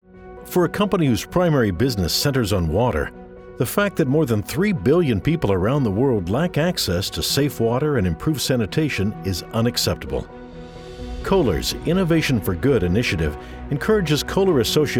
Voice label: Narration